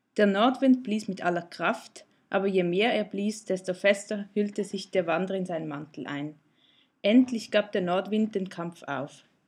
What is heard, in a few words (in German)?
Nordwind Standard